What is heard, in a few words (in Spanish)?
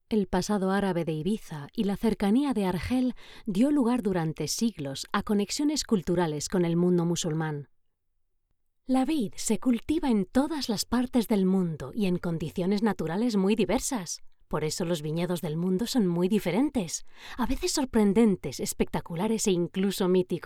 documental samples ESP